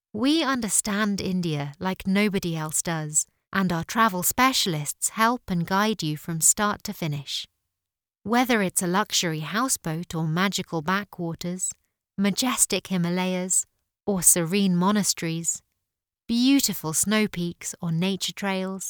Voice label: english british female